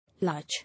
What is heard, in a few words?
"Large", English